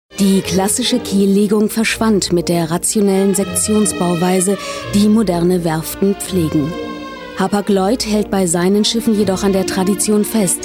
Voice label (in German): werbung overvoice; filmfest; hapag